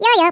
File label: "byebye", Norwegian; Speech; 11khz